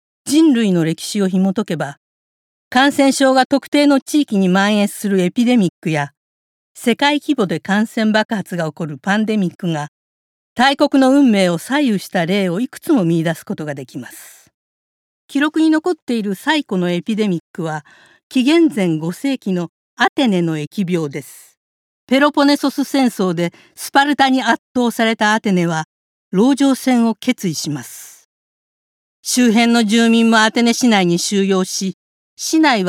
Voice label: ドキュメンタリーナレーション

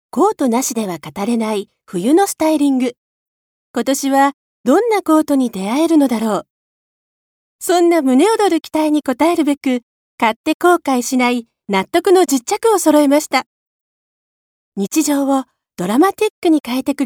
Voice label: ファッションCM

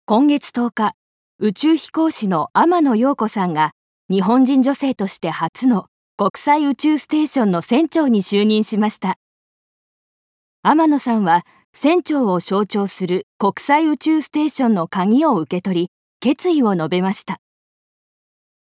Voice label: 広報ナレ

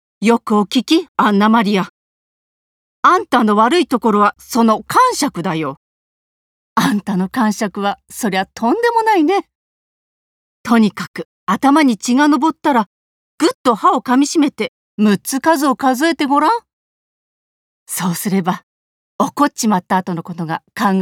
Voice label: 諭す老人

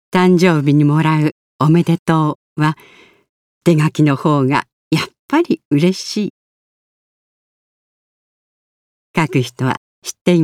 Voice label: ペンのCM

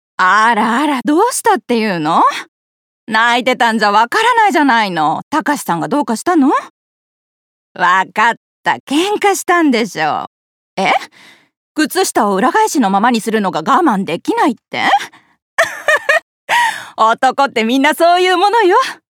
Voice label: 庶民的主婦

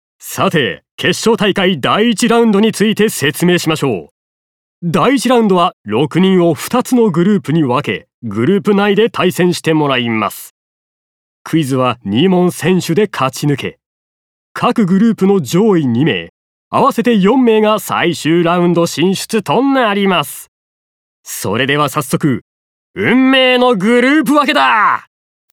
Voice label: クイズ番組司会

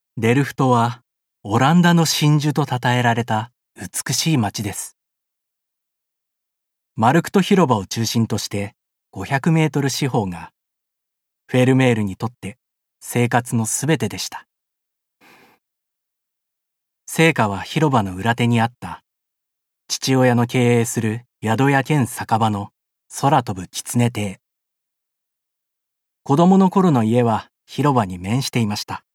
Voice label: 紀行ナレ

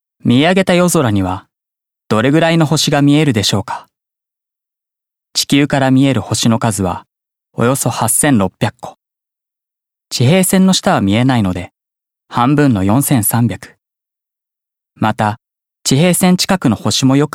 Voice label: 星空解説ナレ

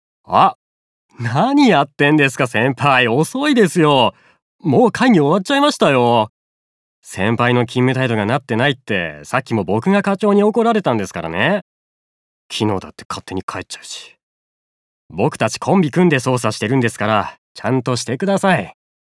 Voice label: 後輩刑事20代

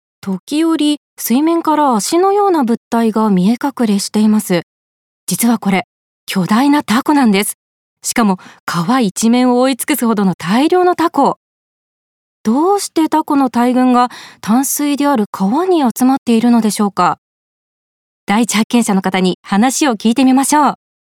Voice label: 番組おとなしめ

